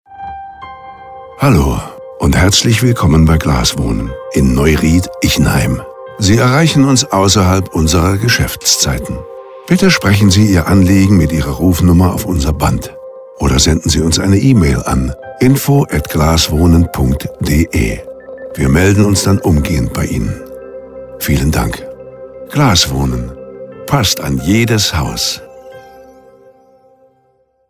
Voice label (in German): AB, Telefonansagen; Glaswohnen